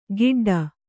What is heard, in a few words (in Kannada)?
"ಗಿಡ್ಡ", Kannada